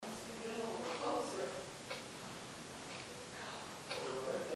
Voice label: "no", recorder, base